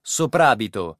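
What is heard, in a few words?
"soprabito", Italian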